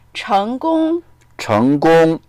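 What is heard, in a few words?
"cheng2gong1", Mandarin Chinese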